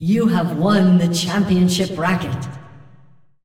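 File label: announcer female; tournaments win champ bracket generic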